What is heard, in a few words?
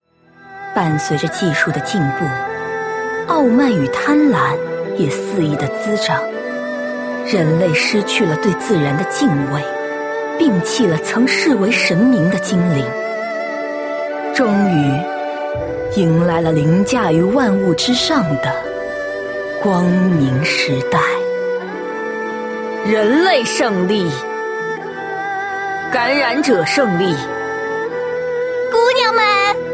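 female middle-aged and elderly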